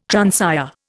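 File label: "transire", Indian English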